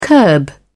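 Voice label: "curb", British English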